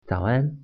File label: "good morning", Mandarin